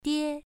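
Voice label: "die" Mandarin, first tone, pronunciation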